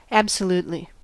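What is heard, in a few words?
"absolutely", US English; pronunciation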